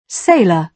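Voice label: "sailor", British English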